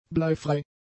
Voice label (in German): "bleifrei", German; adjectives